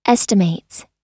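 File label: "estimates", American English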